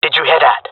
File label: heard sound, jungle male; vo; agents; Source Model Packs; Counter Strike Model Pack; CS2